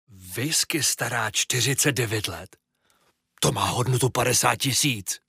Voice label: locutor checo czech voiceover; Locutores checos de doblaje de series